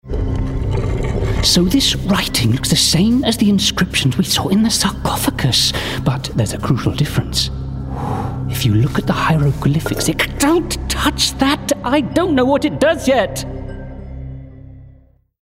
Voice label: Gaming Character Scientist RP